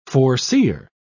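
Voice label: "foreseer", British English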